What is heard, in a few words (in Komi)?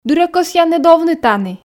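"дыр-ӧ кӧсъянныд овны тані", Komi, female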